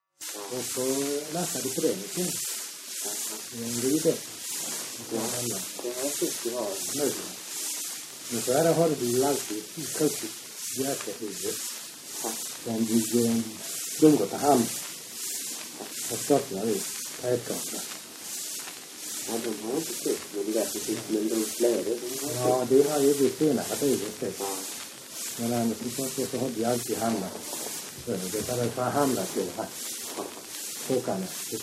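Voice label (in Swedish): Öljehult Blekinge, dialekter; Folkminnen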